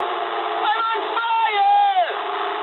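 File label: English on fire mood med; War Thunder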